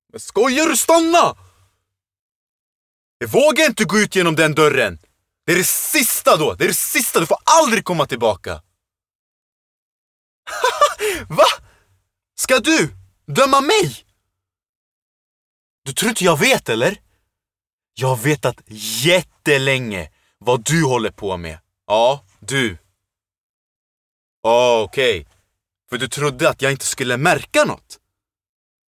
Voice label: Swedish Relationship Drama, Dramatic Dialogue Voice, male; Radio Commercials; Character / Cartoon